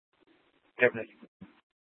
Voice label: Gilbert Police Dispatch; 1 Dispatch

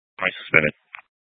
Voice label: Sheriffs Office, scanner

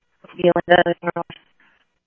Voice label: Police, scanner; H-Scottsdale; ScottsdalePolice

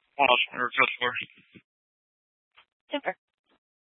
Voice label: Scottsdale Police, Simulcast

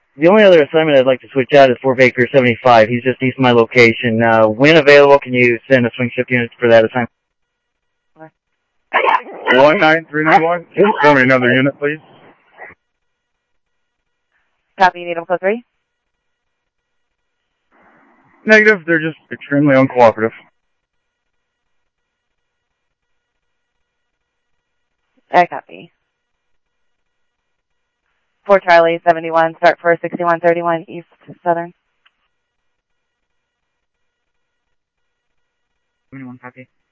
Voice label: Mesa Simulcast, MESA PD, scanner audio

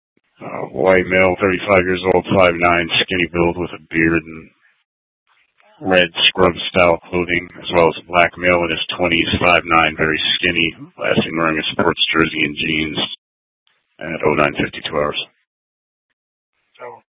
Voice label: PD Dispatch

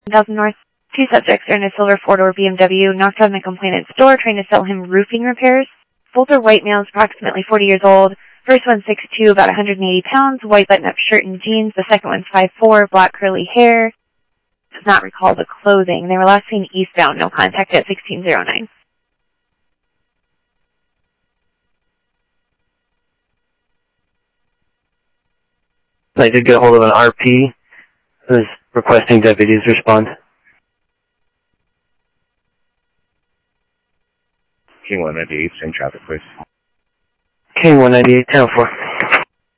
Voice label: Phoenix PD, scanner audio

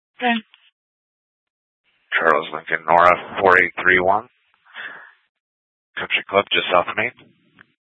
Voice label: Central Dispatch, Mesa PD

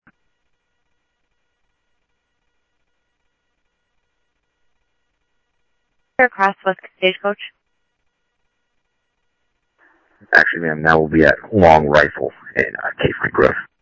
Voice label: Sheriff scanner audio

